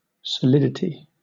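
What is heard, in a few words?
"solidity", British English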